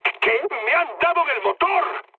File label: damaged engine, mood high, speech; War Thunder